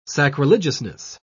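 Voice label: "sacrilegiousness", English, British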